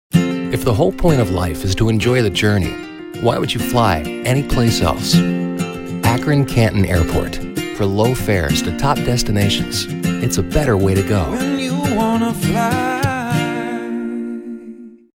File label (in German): TV Werbespots; Jugendfrei; NATIVE PROFISPRECHER